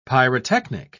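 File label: "pyrotechnic", British English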